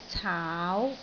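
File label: "caau2", Cantonese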